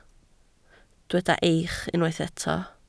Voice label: "eich", Welsh